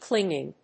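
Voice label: "clinging", English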